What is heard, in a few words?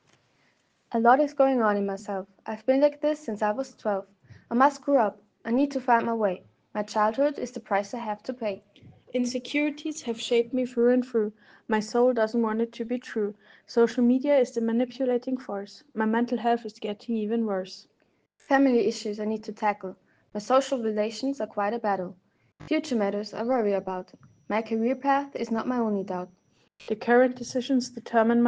Poetry slam